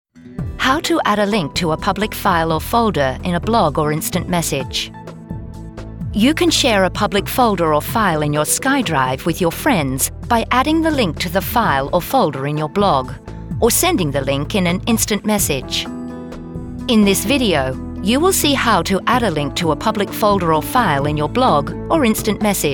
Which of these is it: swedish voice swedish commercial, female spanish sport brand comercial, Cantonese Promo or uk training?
uk training